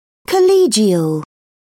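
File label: "collegial", British English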